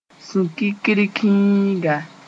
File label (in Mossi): "sʋ-kitrkĩinga", Moore; small locust sp